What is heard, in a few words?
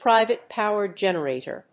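"private power generator", English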